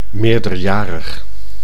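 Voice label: "meerderjarig", Dutch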